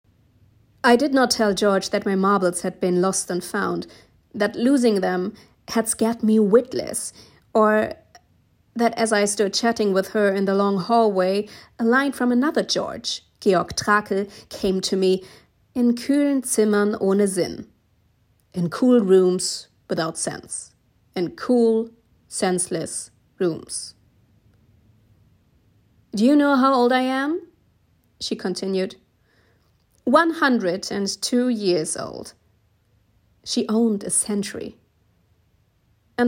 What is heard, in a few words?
Engl Demo; Englisch Hörbuch; Siri Hustvedt